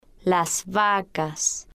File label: "las Vacas", spanish